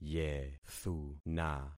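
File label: "yethuna", concatenated syllables; Affixing experiment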